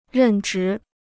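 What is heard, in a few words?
"任职", Chinese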